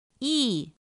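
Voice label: "ì", Mandarin Chinese pinyin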